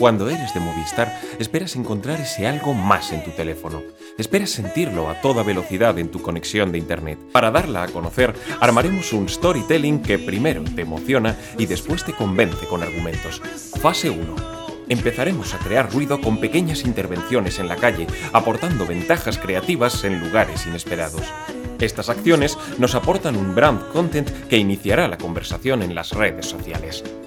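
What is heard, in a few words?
European Spanish, Corporate; GoLocalise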